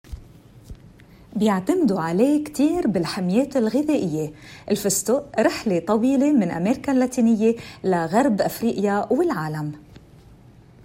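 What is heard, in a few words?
Arabic, Accented Lebanese; GoLocalise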